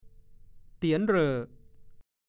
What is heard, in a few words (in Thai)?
"เตี๋ยนเหร่อ", ภาษาโคราช; 1. เตียนจนแม้แต่หญ้าก็ไม่ขึ้น